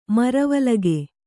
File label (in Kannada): "ಮರವಲಗೆ", Kannada